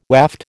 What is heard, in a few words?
"waft", American